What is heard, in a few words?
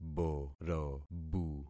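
"borobu", concatenated syllables; Affixing experiment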